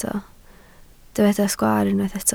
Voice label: "sgwaar", Welsh